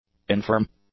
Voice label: "infirm", English; adjectives

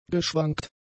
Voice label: "geschwankt", German; adjectives

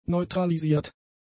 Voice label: "neutralisiert", German; adjectives

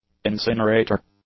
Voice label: "incinerator", English; nouns

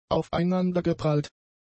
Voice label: "aufeinandergeprallt", German; adjectives

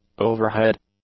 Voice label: "overhead", English; nouns

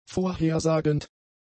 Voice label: "vorhersagend", German; adjectives

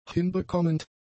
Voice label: "hinbekommend", German; adjectives

